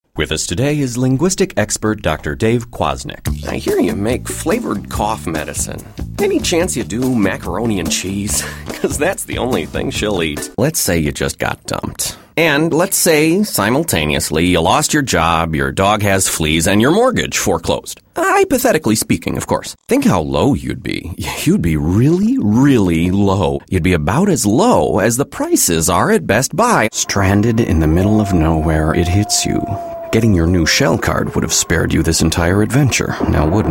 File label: voiceover; Repertory Theatre